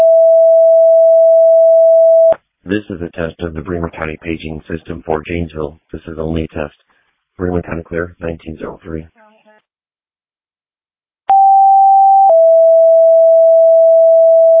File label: Fire, dispatch; Janesville